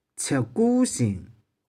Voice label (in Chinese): "chih4ku1shing1", 玉環